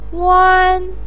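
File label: "wan1" Chinese syllable, pinyin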